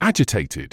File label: "agitated", medical english uk